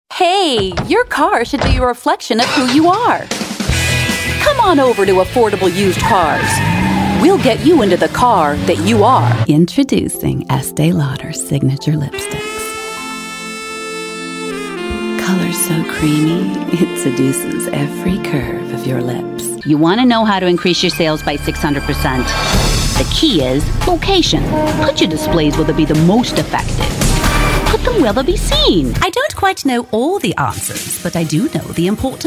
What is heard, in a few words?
voiceover female